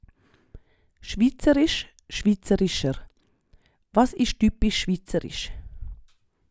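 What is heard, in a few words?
"schwiizerisch", Swiss German; Wortschatz Kapitel 8; Kapitel 8 Hauptwortschatz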